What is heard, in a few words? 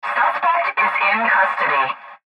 Police Dispatch Female- Suspect Is In Custody; Radio Dispatch Sound Effects; Emergency